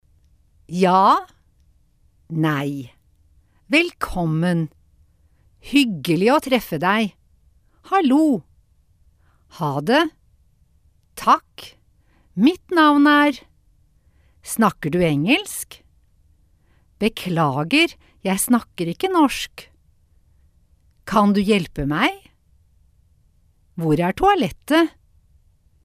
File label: Norwegian